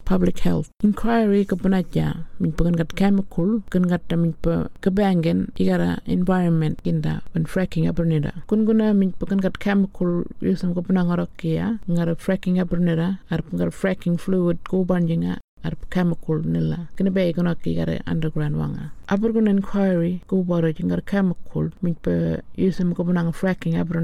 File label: Burarra; PUBLIC HEALTH